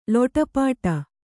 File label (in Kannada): "ಲೊಟಪಟ", Kannada